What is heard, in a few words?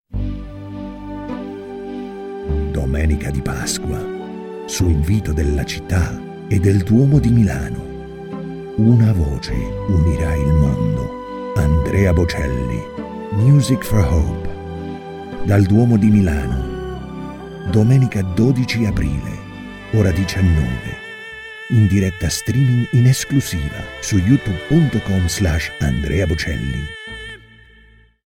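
Italian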